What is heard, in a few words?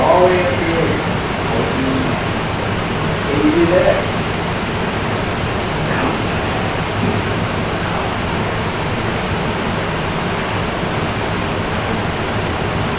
Unexplained Low Whisper (Come back) Amplified